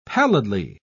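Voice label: "pallidly", British English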